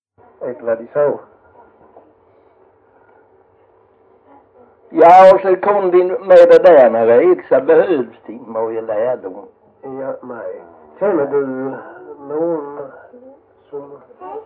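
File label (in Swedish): Jämshög Blekinge, Svenska sagor dialekter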